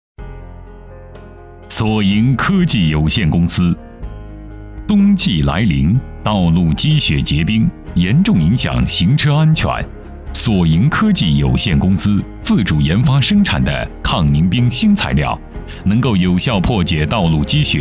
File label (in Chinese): 男,专题,停车安全